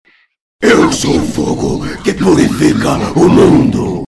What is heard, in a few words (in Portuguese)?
brand voice portugues brasileiro